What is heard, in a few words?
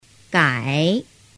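"gai", Mandarin Chinese; phan biet am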